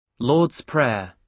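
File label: "Lord's Prayer", British English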